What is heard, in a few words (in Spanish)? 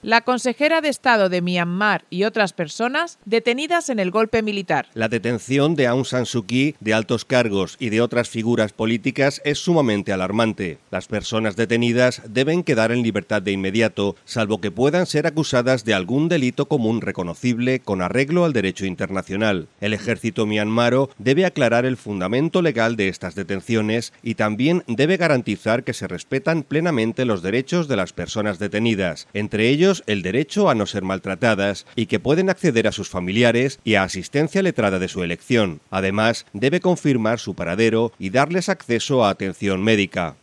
informativo; myanmar detenciones golpe militar